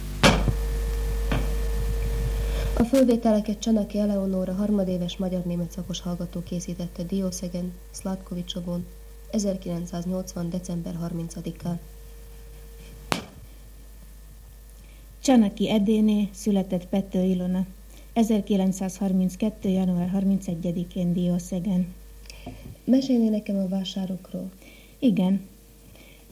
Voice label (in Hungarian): nyelvjaras gyujtesek